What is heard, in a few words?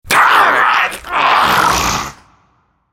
dying, en US; Khazix; Character Voices - English